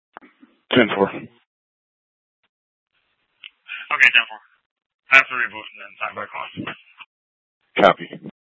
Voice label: PD Dispatch

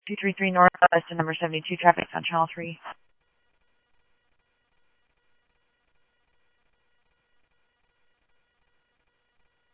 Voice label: Simulcast Police Dep, scanner audio trunk; Mesa Simulcast; MESA PD Superstn; MesaPoliceDep

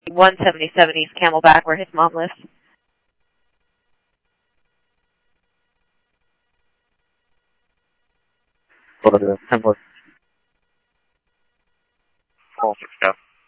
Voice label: Police, scanner; SCTSDLE PD - PD1; H-Scottsdale; ScottsdalePolice

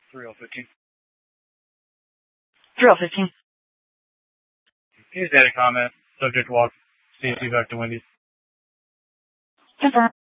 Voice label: Dispatch; Scottsdale

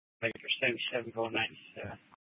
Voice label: Superstition Dispatch, Mesa PD

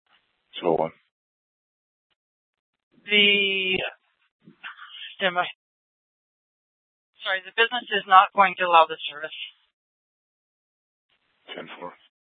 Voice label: Police South Dispatch; Regional Wrlss Cooperative (RWC) - Smlcst H- Scttsdle

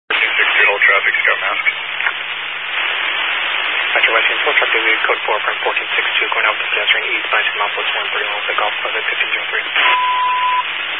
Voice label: Highway Patrol, Phoenix Metro West Area, scanner

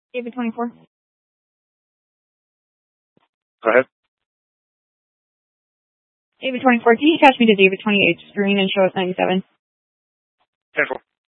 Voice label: Scottsdale Police, Simulcast

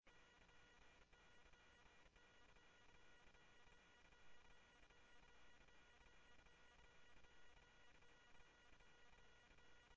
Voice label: Police, scanner audio trunk; H-Scottsdale

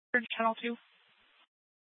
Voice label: Mesa PD Central Dispatch, scanner

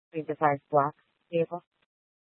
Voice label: Tempe PD, scanner; Rgnl Wireless Co-op (RWC)

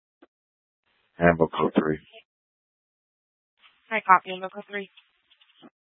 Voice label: Fire Departments Mesa Simulcast; TOPAZ

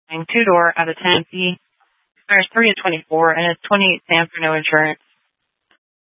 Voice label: Sheriffs Office, scanner

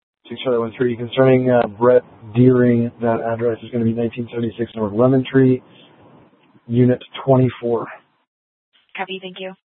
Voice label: Mesa PD Dispatch, scanner; Fiesta; TOPAZ Regional Wireless Cooperative (TRWC); Mesa Simulcast

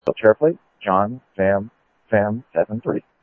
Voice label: Sheriff scanner audio

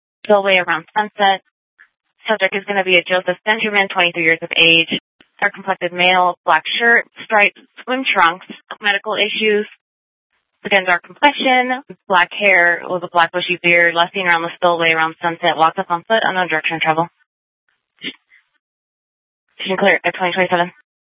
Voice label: Sheriff, scanner P25

